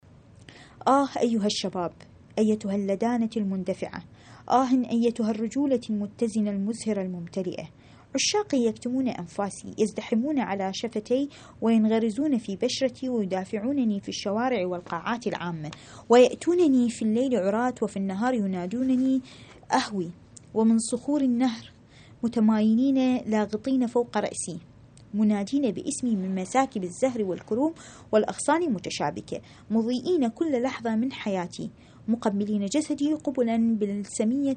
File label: arabic